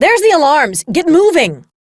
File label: Spy Alarm Trigger, Dialog; Warframe; Spy Quest; Maroo